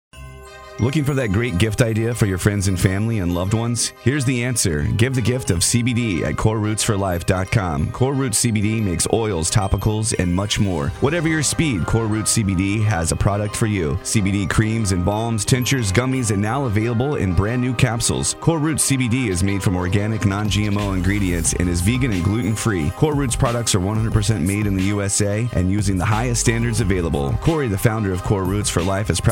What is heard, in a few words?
commercial; Core Roots CBD for the holidays; WRAT FM